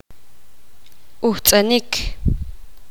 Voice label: poqomam oler

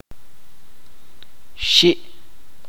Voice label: "agudo", chuj

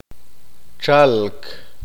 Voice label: "venir", qeqchi

